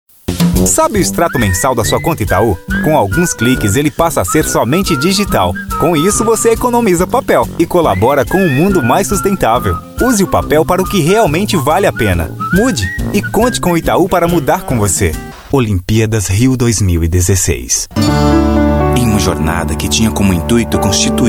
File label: Portuguese